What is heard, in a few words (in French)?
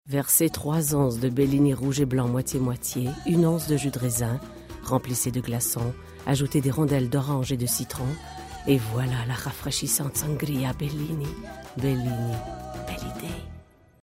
voix pub